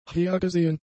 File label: "hergesehen", German; adjectives